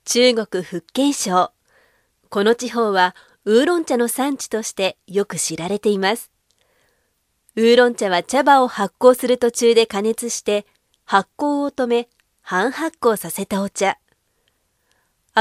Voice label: japanse voiceover locutora japonesa